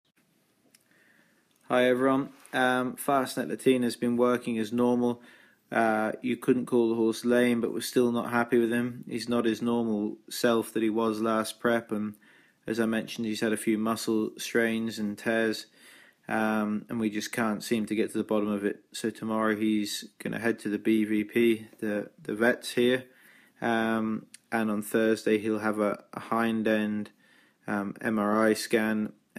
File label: voice note; Fastnet Latina (IRE)